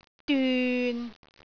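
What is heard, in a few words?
"dyun6", Cantonese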